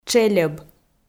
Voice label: "тшельӧб", Komi

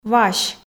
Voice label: "вась", f

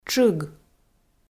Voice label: "тшыг", Komi

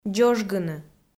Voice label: "дзожгыны", Komi

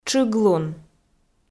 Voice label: "тшыглун", Komi

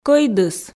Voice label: "кӧйдыс" Komi

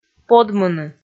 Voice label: "подмыны", Komi

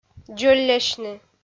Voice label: "дзоллясьны", Komi

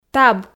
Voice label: "таб", Komi

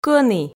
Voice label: "кӧні" Komi

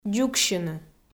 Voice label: "дзугсьыны", Komi

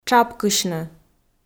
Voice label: "тшапкысьны", Komi

